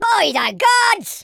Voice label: "what the", Pirate